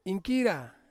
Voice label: "inkira", Ansus; spider